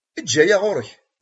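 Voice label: "Kemmlemt ttnaɣemt.", Kabyle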